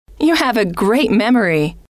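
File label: you Have A Great Memory, female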